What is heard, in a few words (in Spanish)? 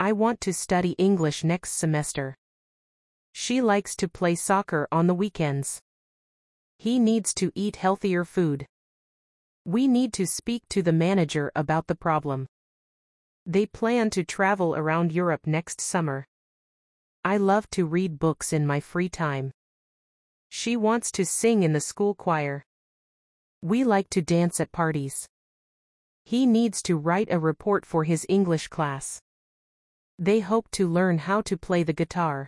gramatica infinitivos ingles; repaso infinitivos